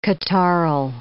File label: "catarrhal", English